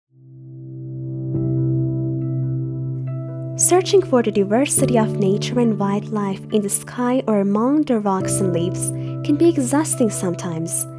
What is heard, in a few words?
narrator english